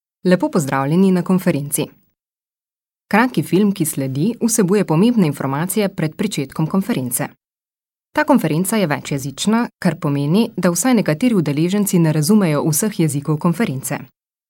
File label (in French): slovene